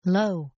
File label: Croupier Low; Casinos